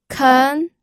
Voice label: "ken2", pinyin